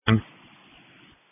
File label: Police Dispatch